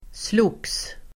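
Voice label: "slogs", Swedish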